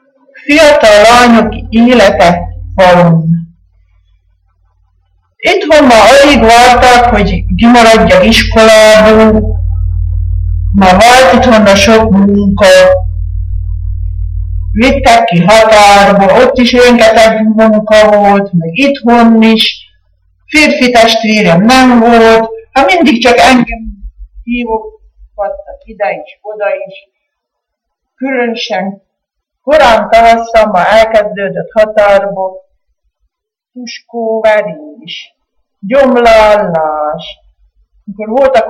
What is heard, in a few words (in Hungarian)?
nyelvjaras gyujtesek